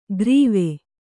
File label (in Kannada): "ಗ್ರೀವೆ", Kannada